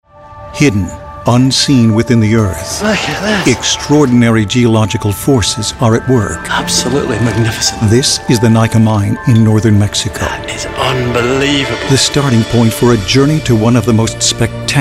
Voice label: Narration